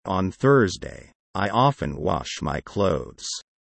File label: low